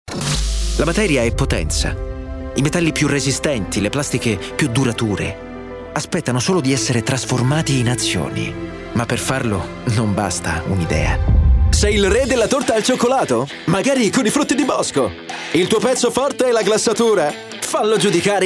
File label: italian, italian male voices